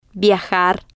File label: "viajar", spanish